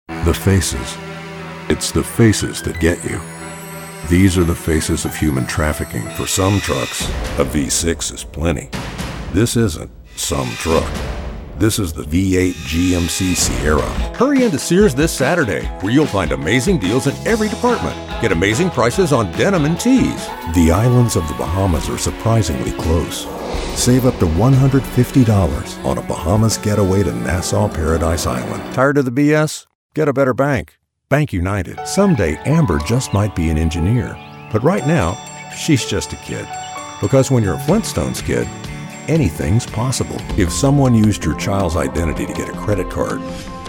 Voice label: Commercial